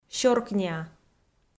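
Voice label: "сёркниа" Komi, female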